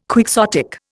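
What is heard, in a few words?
"quixotic", Indian English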